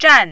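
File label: "zhan4", mandarin, falling tone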